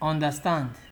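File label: "understand", Nigerian Pidgin, male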